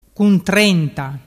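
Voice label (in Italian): "cuntrenta", Vernazzese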